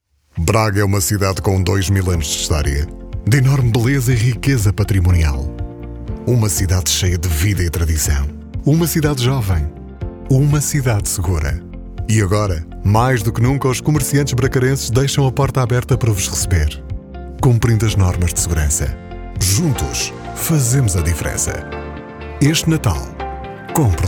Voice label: portuguese corporate videos